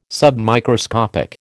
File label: "submicroscopic", American